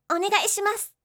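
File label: "onegaishimasu", Japanese; aisatsu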